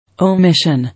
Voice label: "Omission", English